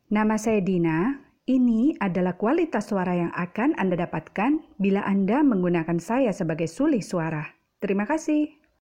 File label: Indonesia casual